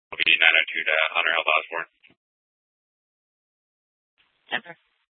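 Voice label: Police Department North Dispatch, scanner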